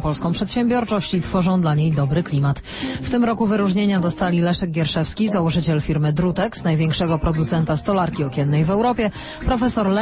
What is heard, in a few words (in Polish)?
POLSKIE RADIO SERWIS EKONOMICZNY; RDC WARSZAWA